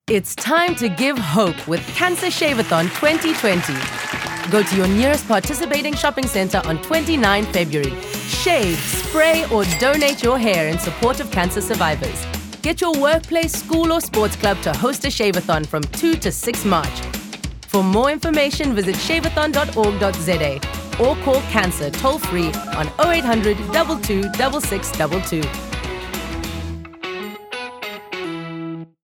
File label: Shavathon English Radio; SABC radio and tv ads shavathon 2020